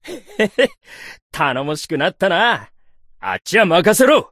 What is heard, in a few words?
voice, dubbing